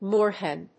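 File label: "moorhen", English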